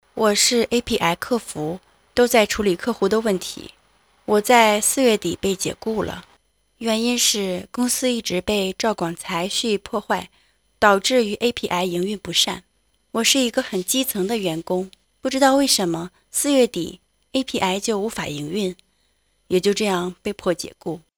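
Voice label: chinese female; lektoring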